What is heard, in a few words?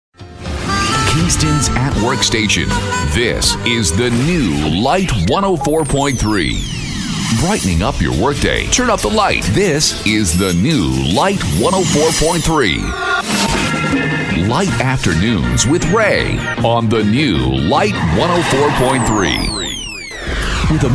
Radio Jingles Liners; Jugendfrei; NATIVE PROFISPRECHER